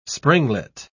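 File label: "springlet", English, British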